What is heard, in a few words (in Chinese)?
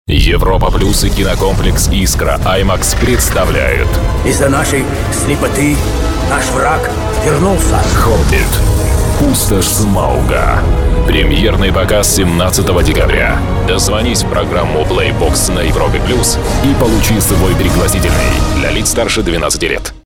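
男俄大音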